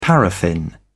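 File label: "paraffin", British English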